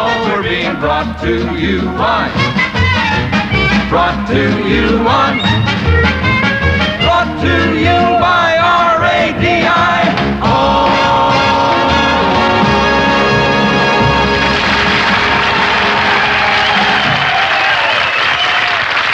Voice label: Pirate Radio London Jingles You May Not Find Us On Tv!